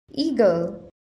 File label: "eagle", English